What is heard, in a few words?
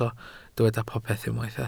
"popeth", Welsh